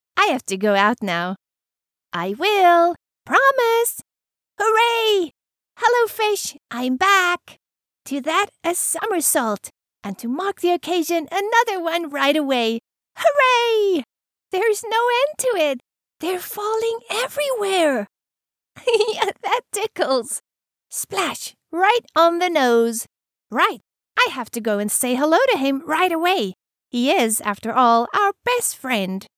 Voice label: english south african animation; Südafrika

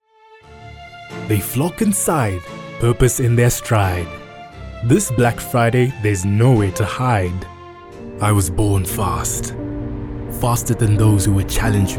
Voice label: english international commercial, Intriguing

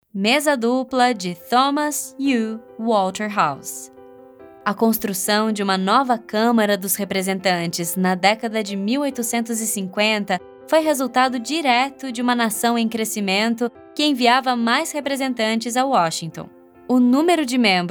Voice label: portuguese brazilian audio guides

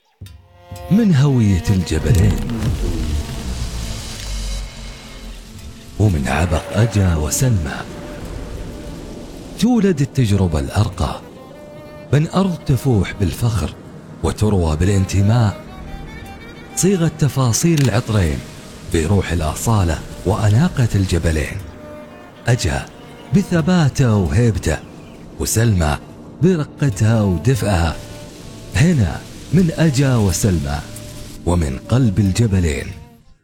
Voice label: arabic promos

